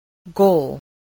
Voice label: "goal", voice